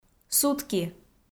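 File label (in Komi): "сутки", Komi, female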